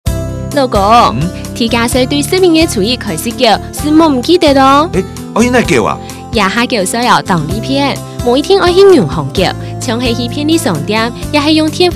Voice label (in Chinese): 地價稅宣導 60秒廣播廣告 客語版